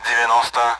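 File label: Speech Sound Russian Common AWACS Numbers; DCS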